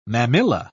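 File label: "mammilla", English, uk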